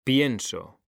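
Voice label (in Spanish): "PIENSO", Spanish; mekanta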